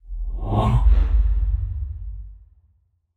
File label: confused; aragami